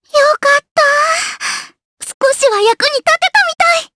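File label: voice Victory, voices heroes, Japanese; Rehartna; kingsraid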